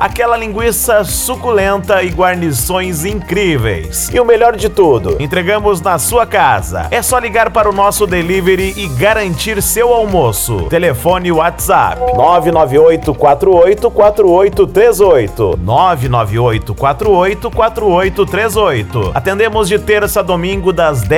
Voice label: Locutor Galeteria; Frangula; Fortaleza CE

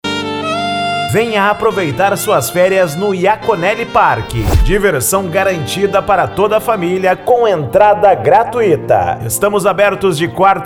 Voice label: Locutor Parque De Diversoes; Iaconelli Park; Maua SP

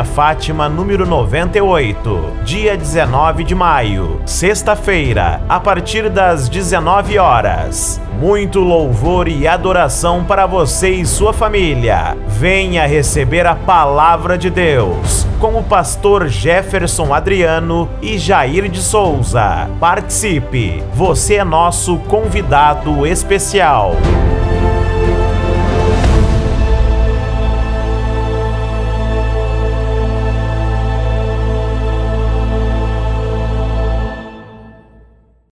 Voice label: Locutor Igreja Pentecostal; Jesus E A Resposta; Frederico Westphalen RS

